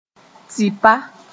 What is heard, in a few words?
"tɩpa", moore